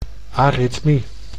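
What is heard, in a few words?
"aritmie", Dutch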